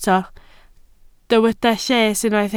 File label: "lles", Welsh